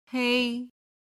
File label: "hei1", Chinese